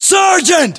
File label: rank sergeant, us